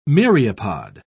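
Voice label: "myriapod", British English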